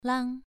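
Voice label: "lang", Mandarin Chinese, second tone, pronunciation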